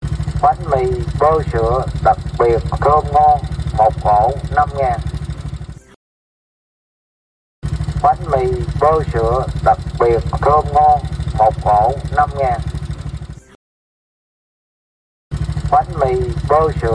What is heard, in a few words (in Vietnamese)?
tieng rao banh mi bo sua